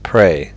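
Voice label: "pray", English; fonetica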